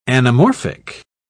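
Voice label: "anamorphic", British English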